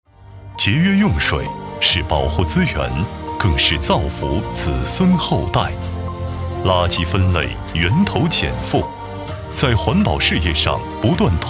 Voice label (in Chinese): 男 宣传; 天巨精密机电